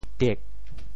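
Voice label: "tiek4", Teochew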